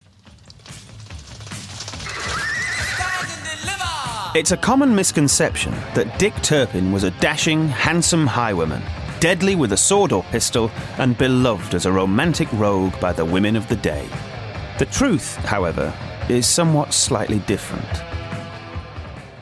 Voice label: narrative